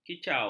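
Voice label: "kicau", Malay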